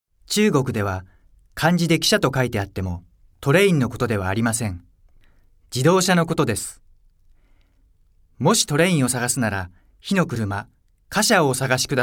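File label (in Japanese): 解説ナレーション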